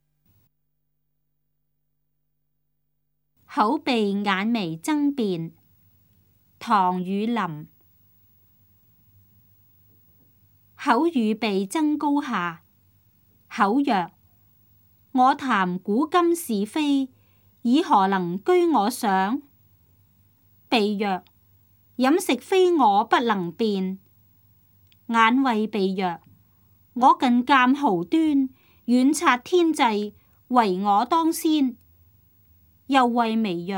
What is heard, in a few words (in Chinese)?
cantonese; 口鼻眼眉爭辯; 粵語